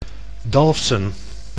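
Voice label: "Dalfsen", Dutch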